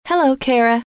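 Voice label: hello, female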